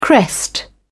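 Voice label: "crest", British English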